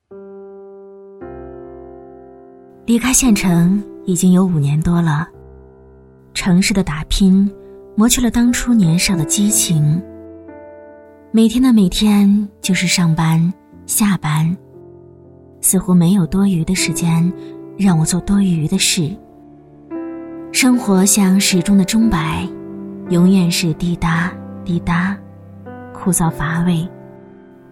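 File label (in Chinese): 女配音员 内心独白; 一分钟内心独白; 国语女声配音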